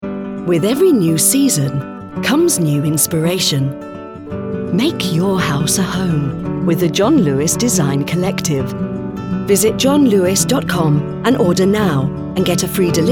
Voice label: Commercial